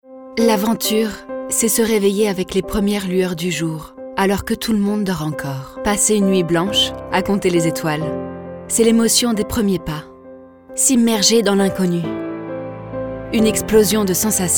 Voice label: female french aventure comercial; ideasonora